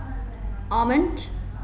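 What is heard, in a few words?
"almond", British English, female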